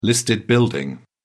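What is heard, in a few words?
"listed building", British English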